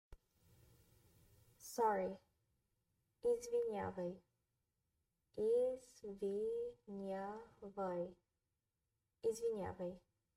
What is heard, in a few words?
BGN Sorry, Bulgarian; the talking bulgarian phrasebook